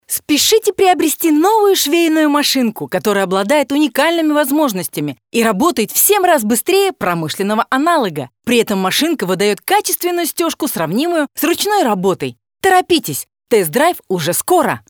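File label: Russian female Positive, vo examples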